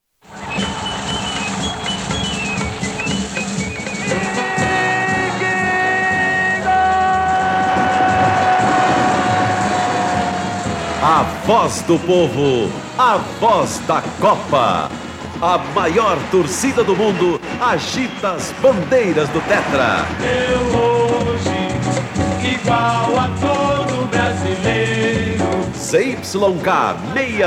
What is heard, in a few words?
Radio 1100kHz; Radio Globo SP